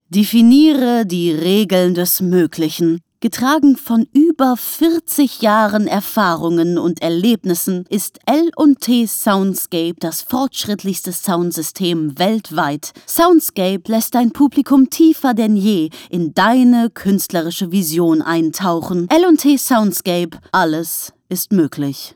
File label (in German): Werbung Soundscape, Sprecherin; ARTE MEDIATHEK